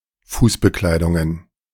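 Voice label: "Fußbekleidungen", German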